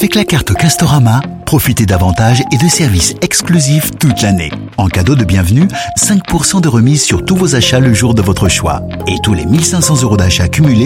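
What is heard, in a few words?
French Canadian M Commercial, male; voice over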